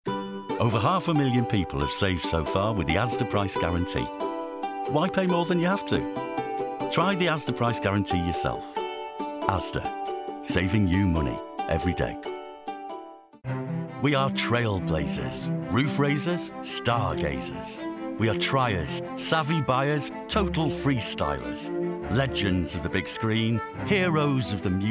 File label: Commercial